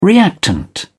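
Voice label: "reactant", British English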